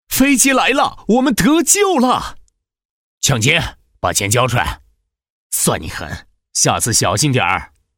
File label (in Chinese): 角色 男 对话